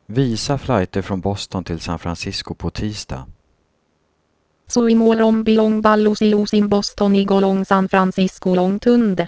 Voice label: Tok Pisin Machine Translation; Swedish To Tok Pisin